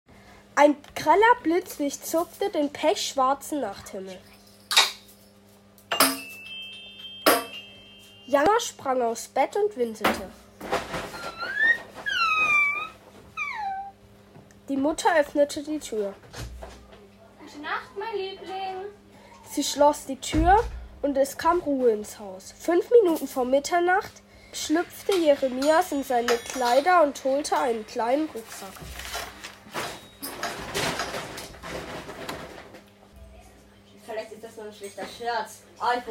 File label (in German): Hoerspiel; Hoerspiel Kapitel 3